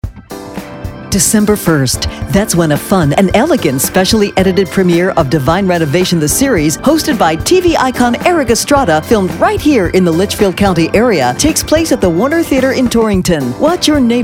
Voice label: radio spot; LIVE EVENT WITH ERIK ESTRADA